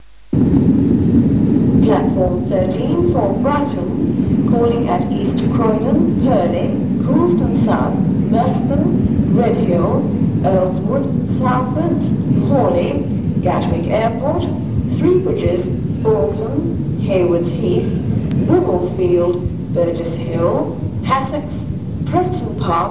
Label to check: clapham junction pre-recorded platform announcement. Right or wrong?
Right